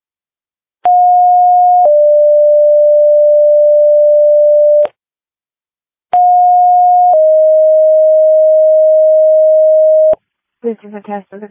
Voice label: Fire, dispatch